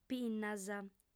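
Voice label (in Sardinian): "pinnas", female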